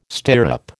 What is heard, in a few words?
"stirrup", American